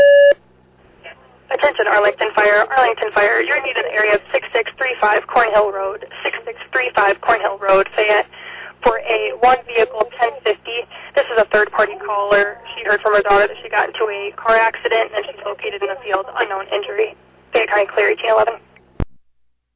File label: Arlington Fire, dispatch